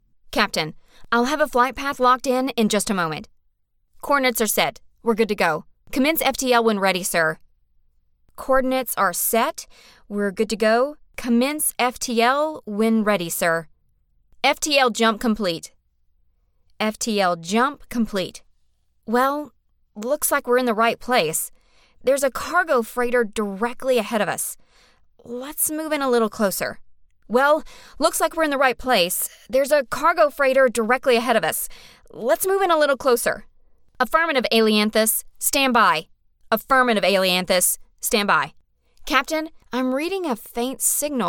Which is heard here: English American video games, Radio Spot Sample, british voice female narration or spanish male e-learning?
English American video games